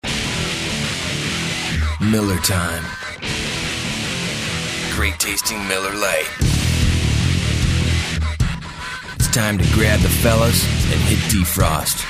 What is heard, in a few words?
attitude